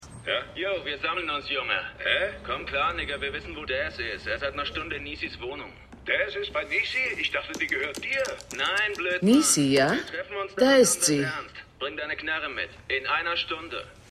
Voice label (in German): Abgehoerte Stimme; The Wire